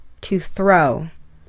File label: "to throw", eng